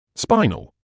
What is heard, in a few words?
"spinal", English